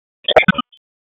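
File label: Dispatch 1, P25; WSO DISP 1; wake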